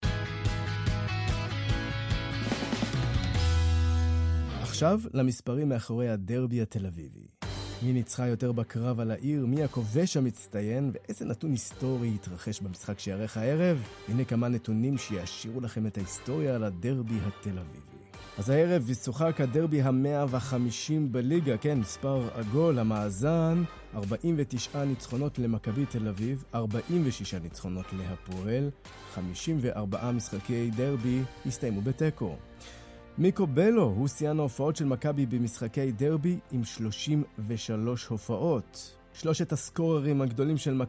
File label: hebrew commercial; Israel